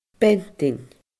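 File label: "beantainn", Scottish Gaelic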